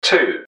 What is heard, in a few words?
two, english man, subway announcers; MetrostroiAddon